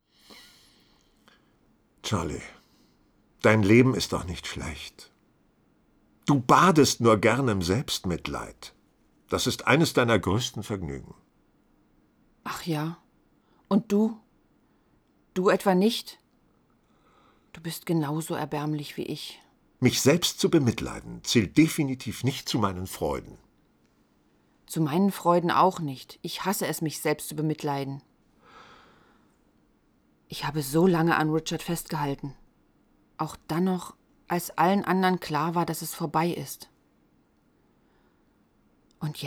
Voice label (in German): probe hörspiel